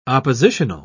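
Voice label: "oppositional", British English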